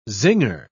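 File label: "zinger", British English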